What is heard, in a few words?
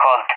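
"Colt", English, Spain; CallSign; DCS; Allied Flight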